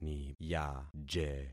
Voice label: "niyaje", concat syllables; Affixing experiment